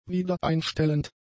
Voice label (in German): "wiedereinstellend", German; adjectives